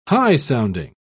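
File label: "high-sounding", English, British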